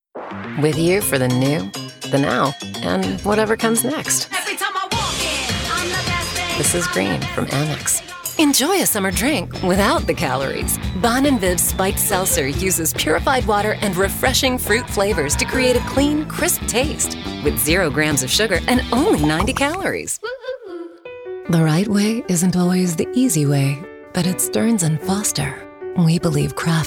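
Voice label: female English US commercial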